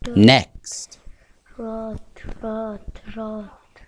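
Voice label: "lute", spoken word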